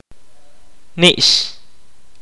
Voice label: kaqchikel; insect

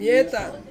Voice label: "mietang", Ansus; black

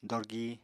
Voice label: "dorgi", Apatani

